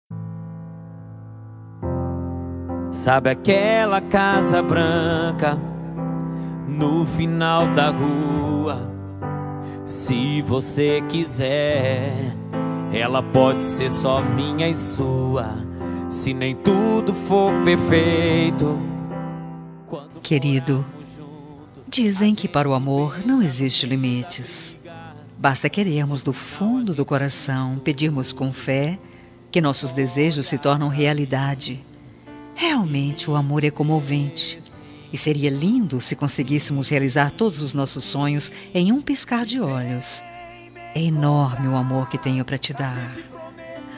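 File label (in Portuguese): birthday ALGUEM ESPECIAL female, telemensagem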